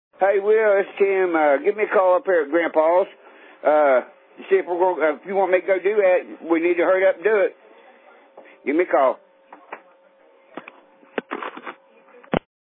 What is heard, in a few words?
Voicemail